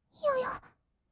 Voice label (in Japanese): "よよっ！", kawaii voice; 1200 kawaii voice!!; 色々なワンショット